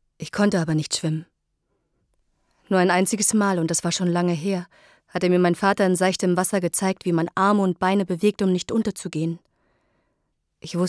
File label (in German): Hörbuch